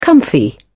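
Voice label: "comfy", British English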